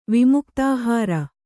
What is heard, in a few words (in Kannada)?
"ವಿಮುಕ್ತಾಹಾರ", Kannada